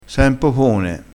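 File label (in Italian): "popone", dialettale, crespinese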